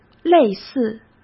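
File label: "类似", Mandarin Chinese; hsk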